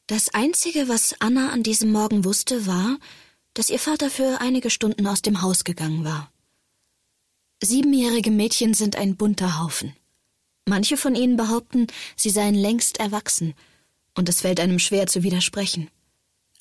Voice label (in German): hoerbuch; anna und der schwalbenmann